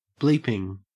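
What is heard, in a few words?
"bleeping", English, Australian accent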